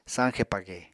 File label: "sañxe page", Apatani